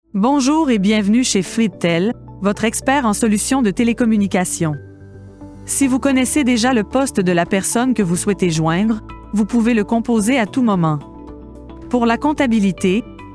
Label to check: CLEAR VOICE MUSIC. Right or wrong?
Right